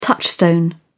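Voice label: "touchstone", English, British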